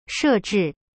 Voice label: "设置" she zhi, chinese; cyberchinese